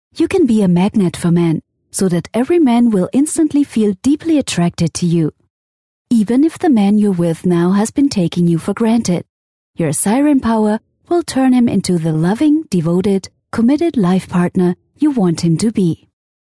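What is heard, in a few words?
German, female, English Sample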